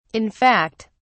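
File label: "in fact", British English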